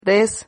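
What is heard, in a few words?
"rez", Spanish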